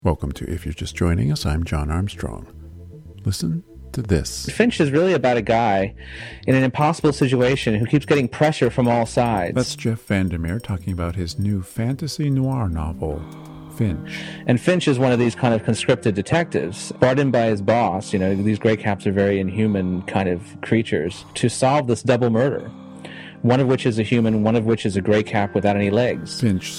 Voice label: promo